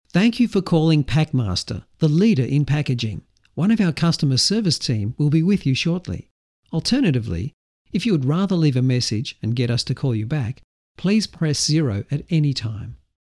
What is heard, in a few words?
On Hold